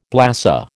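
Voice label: "blasé", American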